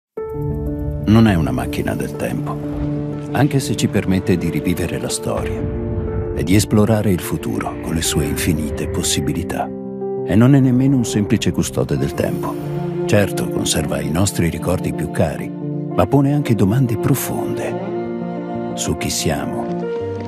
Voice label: male, Italian